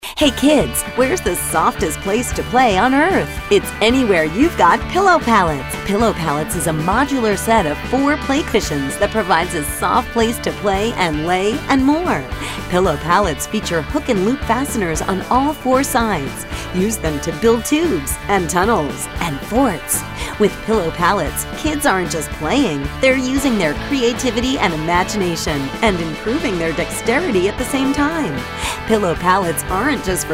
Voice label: Kid Friendly

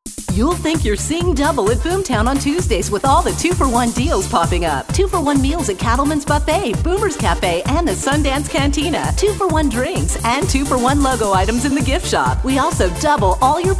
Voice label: High Energy

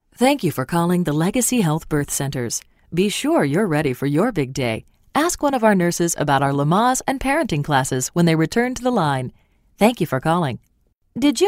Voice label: on hold

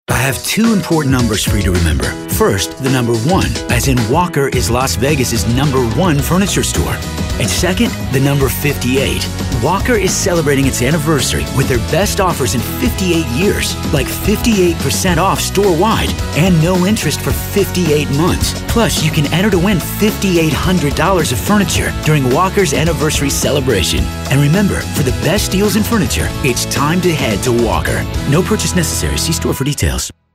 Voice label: Friendly

